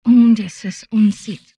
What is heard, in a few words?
humanoid female; Stellaris